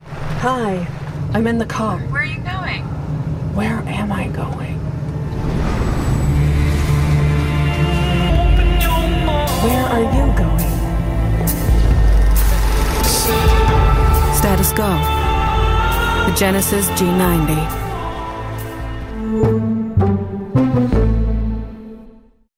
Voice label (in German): US Werbung; Hyundai Genesis